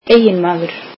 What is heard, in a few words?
icelandic husband